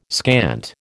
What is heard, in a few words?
"scant", American